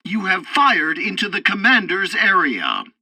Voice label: tutorial tank arcade first target commander hit, english; War Thunder